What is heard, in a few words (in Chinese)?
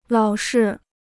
"老是", Chinese